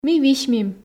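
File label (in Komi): "ми висьмим", Komi, female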